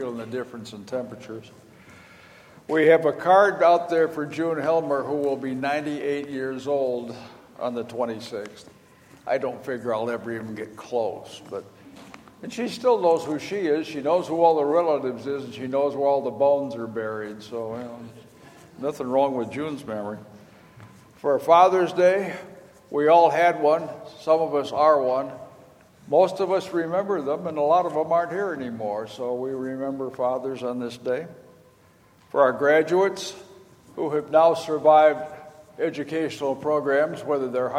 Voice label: Welcome and Announcements